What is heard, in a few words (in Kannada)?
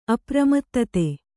"ಅಪ್ರಮತ್ತತೆ", Kannada